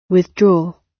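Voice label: "withdraw", British English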